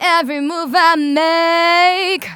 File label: Phrase Female; Vengeance Samples; Phrases